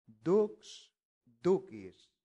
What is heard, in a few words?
"dux ducis", latin; santillana